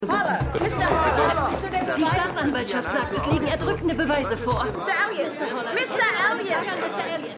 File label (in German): Reporterin; The Lincoln Lawyer